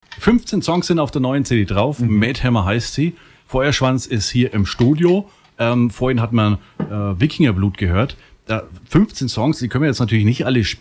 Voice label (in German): Ansage Hoerner Hoch